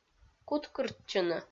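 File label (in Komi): "куткыртчыны", Komi-Zyrian, female; kpv audio dict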